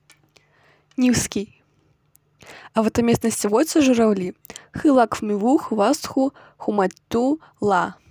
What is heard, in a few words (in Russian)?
нивхский 1 предложение